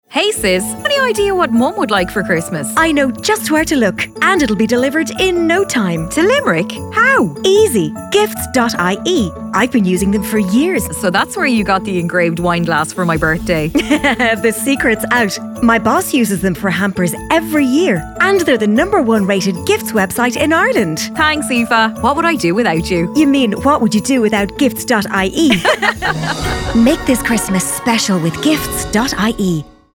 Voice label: UK English; Christmas Commercials; Christmas Commercials 2023